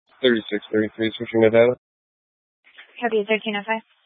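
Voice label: Police 1 Dispatch, Scanner audio recording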